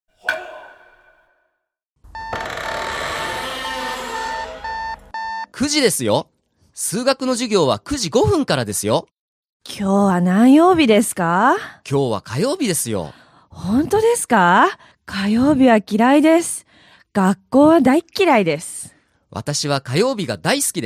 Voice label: dialog; JapanesePod101; Beginner Season 1